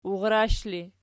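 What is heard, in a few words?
"urrsh" ergative, Archi; urrash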